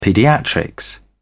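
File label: "paediatrics", British English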